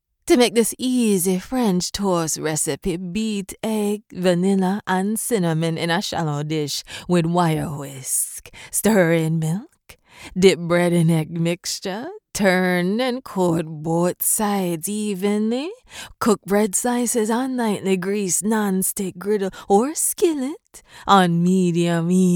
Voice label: english american

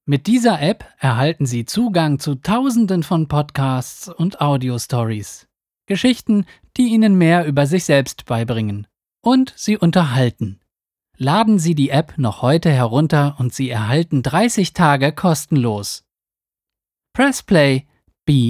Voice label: german narration

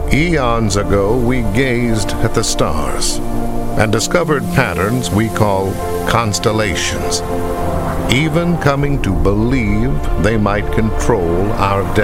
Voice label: english american documentaries

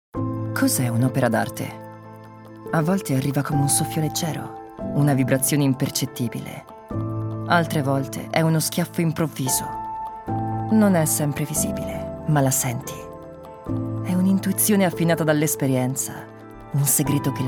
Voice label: Italian narration

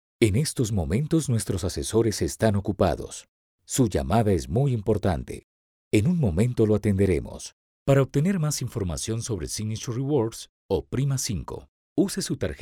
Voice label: spanish latin american ivr

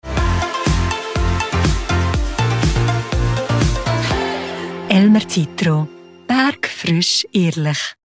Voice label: german switzerland others

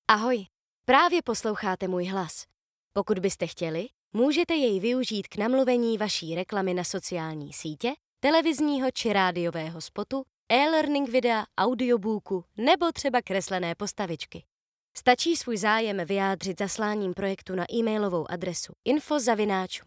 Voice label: czech narration

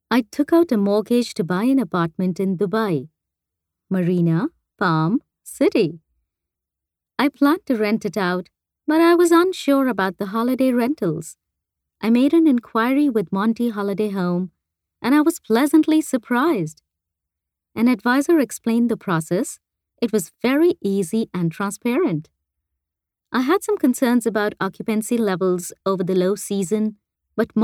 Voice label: english indian promos

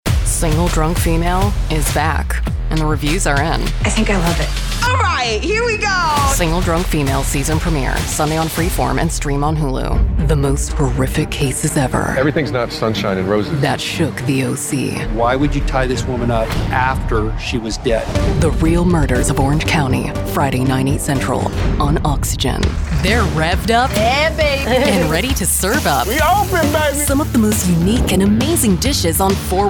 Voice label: english american promos

